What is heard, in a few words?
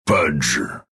spawn, ru